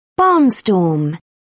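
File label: "barnstorm", British English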